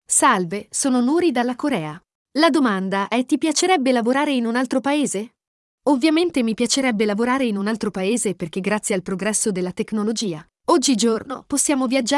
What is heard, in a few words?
Audiobook Voice